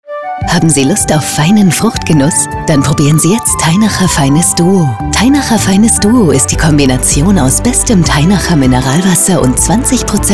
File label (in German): Radio ueberregional; Teinacher